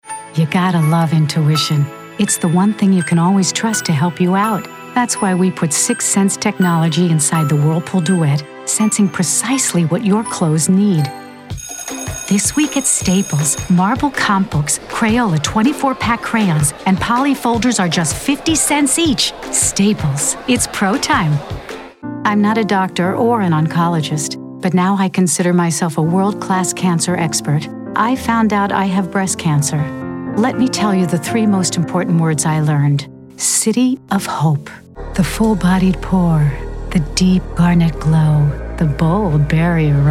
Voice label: Comm Demo